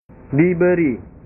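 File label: "diberi", Indonesian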